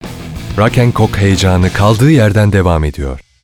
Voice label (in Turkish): enerjik tempolu, seslendirme